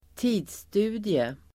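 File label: "tidsstudie", Swedish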